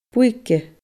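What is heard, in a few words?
"buige", Scottish Gaelic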